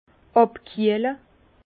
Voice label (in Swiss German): "abkiehle", Bas Rhin; vin; rafraîchir